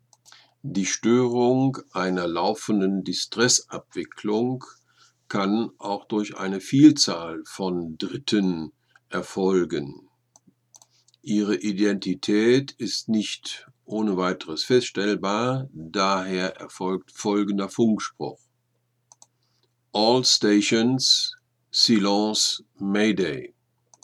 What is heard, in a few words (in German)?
Silence Mayday Mehrzahl an Stoerer, Funk Seefunk; Haubentaucher; short range certificate sprechfunkbeispiele nach imo vorgabe; Distress: Störung durch eine Vielzahl oder Unbekannten